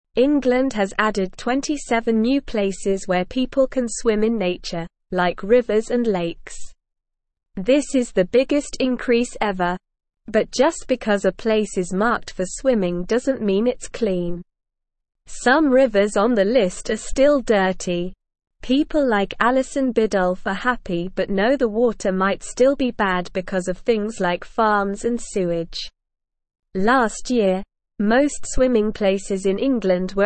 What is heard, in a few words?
English SLOW Reading; 27 New Places to Swim in Englands Nature